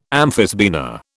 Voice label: "amphisbaena", British

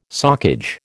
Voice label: "socage", American

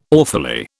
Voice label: "awfully", British

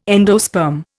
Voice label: "endosperm", Indian

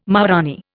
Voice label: "maharanee", Indian

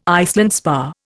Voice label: "Iceland spar", Indian

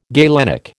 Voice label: "galenic", American

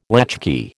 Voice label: "latchkey", American

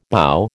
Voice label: "bough", American

